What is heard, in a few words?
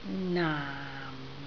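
"naam4", Cantonese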